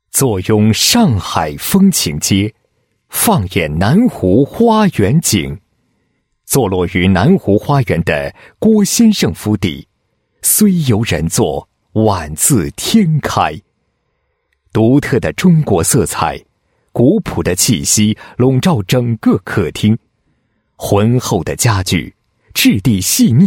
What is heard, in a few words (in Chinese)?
男 宣传广告, 装饰公司宣传; 家安